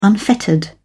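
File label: "unfettered", English, uk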